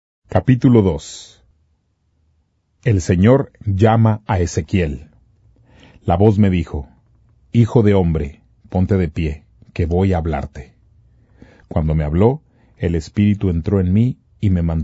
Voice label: spanish, non-drama; ezequiel 02